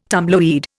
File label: "tumbleweed", Indian